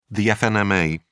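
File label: "fnma", British English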